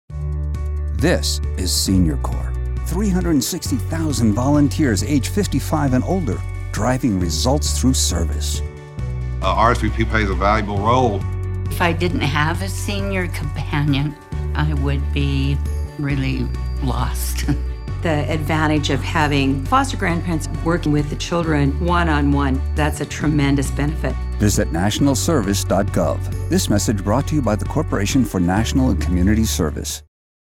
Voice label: English; CNCS; Senior Corp

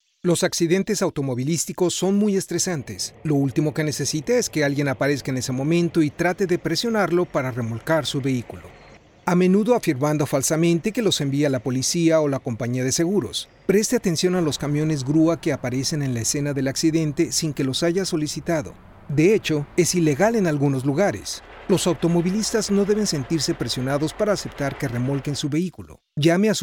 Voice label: Towing Spanish; NICB

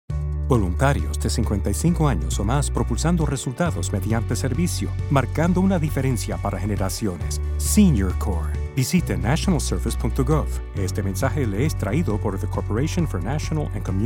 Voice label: Spanish; CNCS; Senior Corp

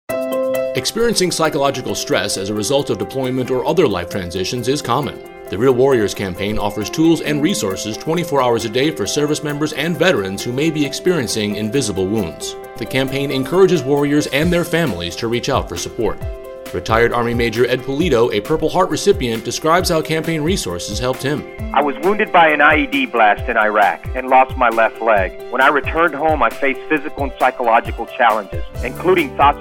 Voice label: Radio PSA; Real Warriors

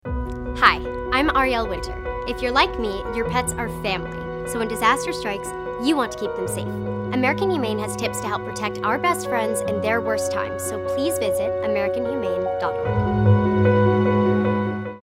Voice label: PSA

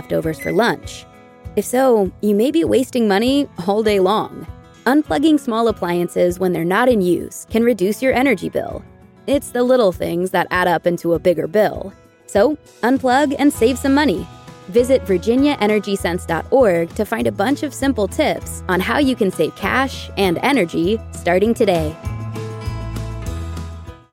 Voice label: Radio Spot Coffee

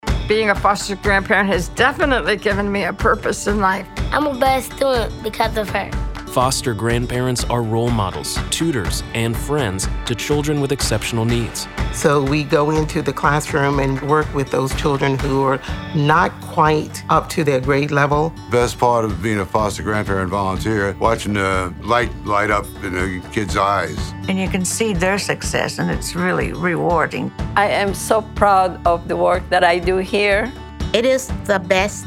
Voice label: RADIO; CNCS; Foster Grandparents

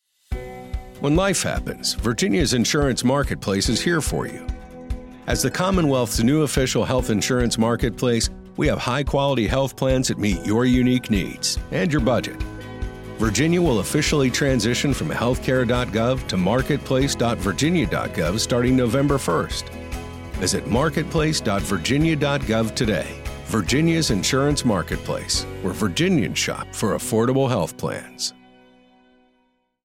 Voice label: Radio PSA M

